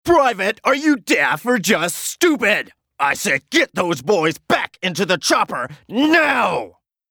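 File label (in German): English US Werbung Energisch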